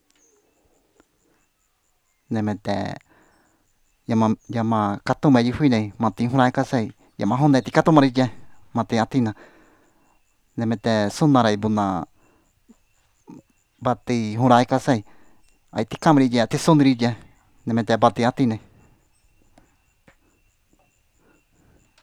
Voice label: child told off